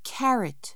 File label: "carrot", English word